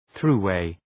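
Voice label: "thruway", English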